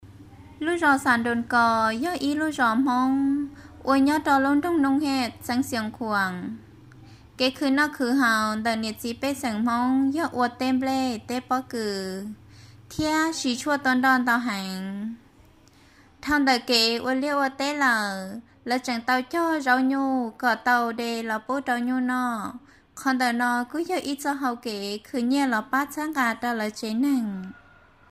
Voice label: hmong female

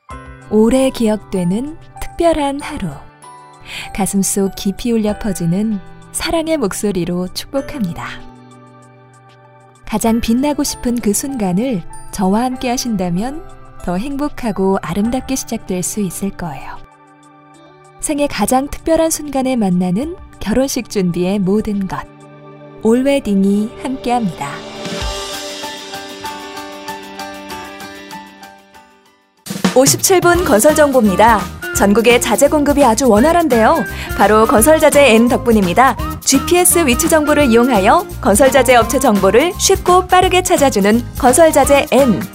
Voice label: korean female

